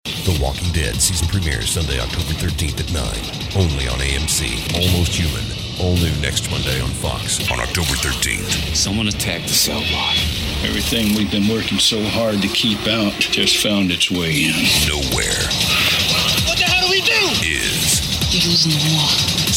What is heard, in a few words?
Promo